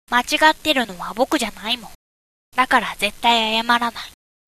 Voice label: boy